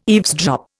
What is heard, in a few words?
"eavesdrop", Indian